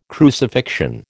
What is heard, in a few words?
"crucifixion", American